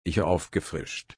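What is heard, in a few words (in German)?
frischen, German slow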